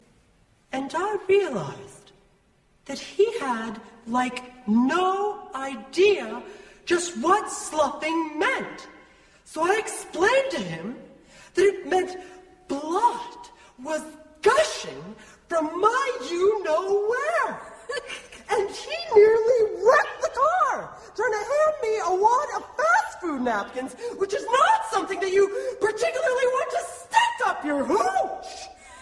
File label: Monologue